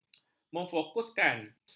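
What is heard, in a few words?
"memfokuskan", Malay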